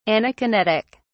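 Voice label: "anakinetic", British English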